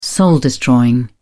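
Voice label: "soul-destroying", British English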